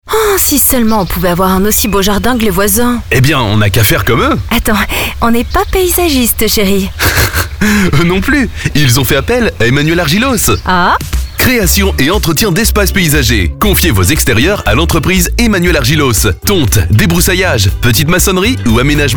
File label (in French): Spot